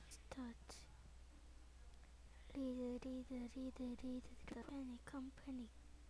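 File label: "lead", spoken word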